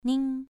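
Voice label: "ning", tone 2, pronunciation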